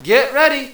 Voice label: Announcer Ready; BREAKIN BATTLE

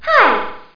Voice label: GIRL

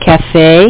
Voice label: java, French; Java Developer's Companion; WordMatch

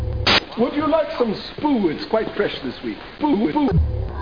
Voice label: STATIC; Babylon 5; G'Kar

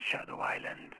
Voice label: Whisp; PCGamer50

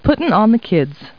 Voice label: people, kid

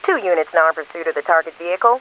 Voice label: cops, English; Driver

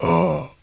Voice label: zombie

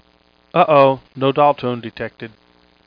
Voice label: No Dial Tone (Male)

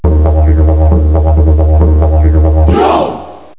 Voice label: JAP YEAH

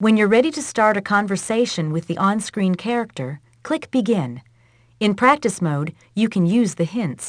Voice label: Conversation

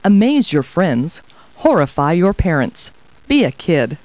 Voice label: people, kid

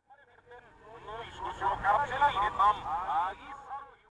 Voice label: PA VOICE, commercial

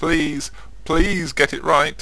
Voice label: chat

